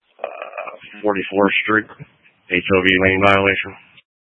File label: Sheriffs Office, scanner